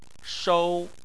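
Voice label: "shou" tone 1, Chinese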